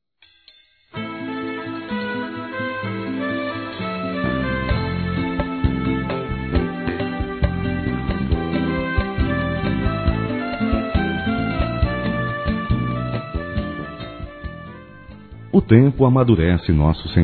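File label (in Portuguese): Romantica Madura Masculino; Aniversários; ANIVERSARIO romantico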